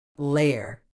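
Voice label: "layer", British English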